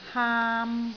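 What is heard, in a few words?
"haam3", Cantonese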